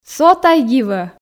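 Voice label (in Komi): со тай дивӧ, Komi, female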